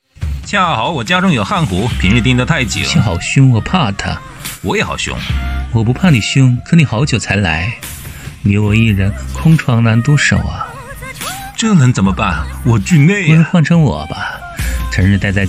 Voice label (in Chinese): 广播剧; 你妻好凶我怕他